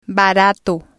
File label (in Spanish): "barato", spanish